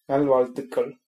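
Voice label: good luck, tamil, male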